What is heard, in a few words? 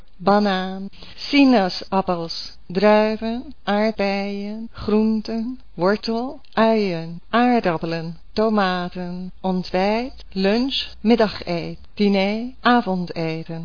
dutch; food